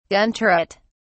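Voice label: "gun turret", British English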